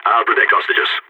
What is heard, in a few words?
going to guard hostages, balkan; Counter-Strike Global Offensive